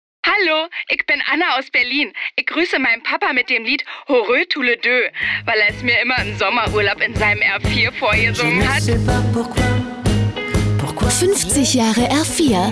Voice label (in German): berlinerisch; RenaultSummertime